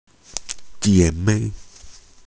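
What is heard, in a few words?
"jie3 mei4", Mandarin Chinese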